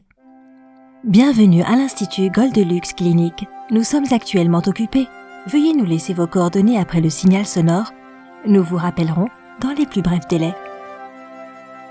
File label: IVR music; goldeluxe